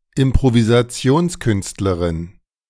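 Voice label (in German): "Improvisationskünstlerin", German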